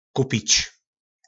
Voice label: "Kupič", nářečí Po našimu; Koupit

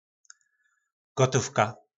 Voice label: "Gotóvka", nářečí Po našimu; Hotovost

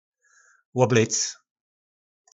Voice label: "Łoblyc", nářečí Po našimu; Obléct

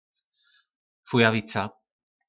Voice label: "Fujavica", nářečí Po našimu; Vánice

